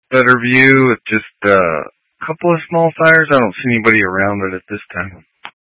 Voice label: Tactical Incidents, scanner

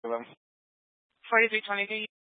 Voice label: Sheriff Dispatch, scanner; Mesa County

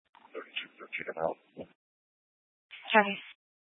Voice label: Police Dispatch, scanner audio; Police 1 Dispatch

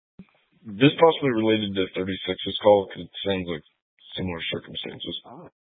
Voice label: Sheriff Dispatch, scanner; Mesa County

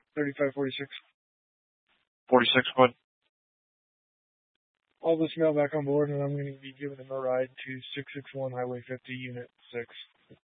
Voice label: Police Dispatch

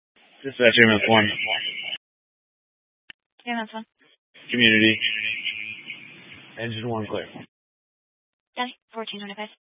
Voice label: Fire Department Dispatch, scanner

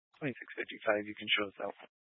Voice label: Sheriff Dispatch; Mesa County

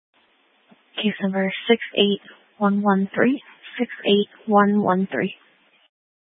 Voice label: Police Dispatch, Scanner audio recording

